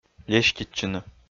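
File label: "леститчыны", Komi, male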